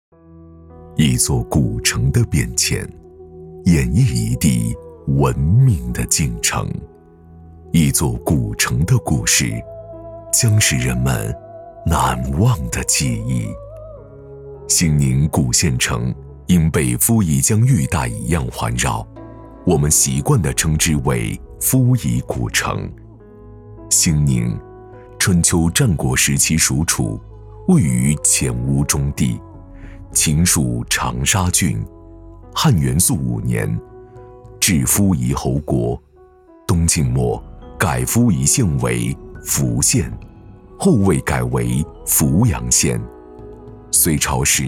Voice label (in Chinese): 男 专题片; 千年古城